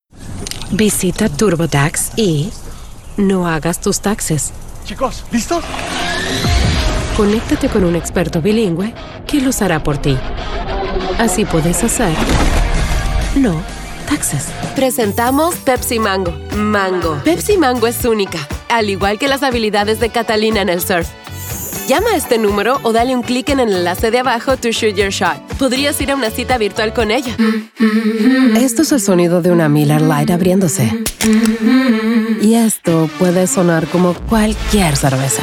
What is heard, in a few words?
COMMERCIAL SPA